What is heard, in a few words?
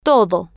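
"todo", Spanish, female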